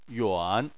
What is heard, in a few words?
"yuan3", Chinese